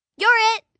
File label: Youre It, 1 girl, 9 yrs; Talking